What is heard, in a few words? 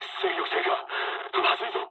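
Japanese damaged engine mood low; War Thunder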